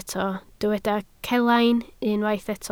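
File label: "celain" Welsh, spoken word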